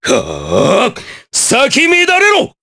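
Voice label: Skill, Japanese; Nicx; kingsraid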